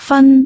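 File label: "fan1", Cantonese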